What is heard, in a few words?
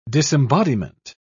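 "disembodiment", British English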